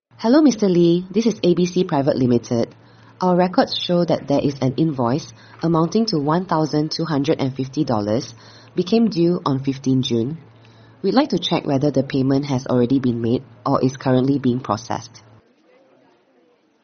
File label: first Female, ai payment reminders